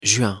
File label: pronunciation fr "juin"